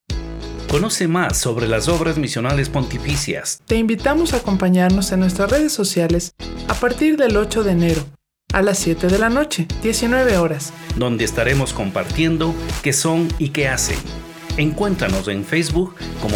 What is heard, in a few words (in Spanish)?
spot